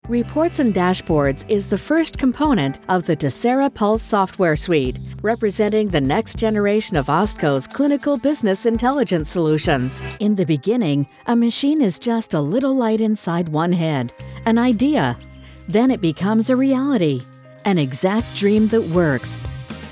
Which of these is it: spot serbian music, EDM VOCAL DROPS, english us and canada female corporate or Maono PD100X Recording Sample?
english us and canada female corporate